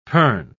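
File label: "pern", British English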